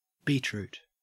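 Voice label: "beetroot", Australian English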